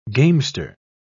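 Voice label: "gamester", English, British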